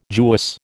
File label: "Jewess", American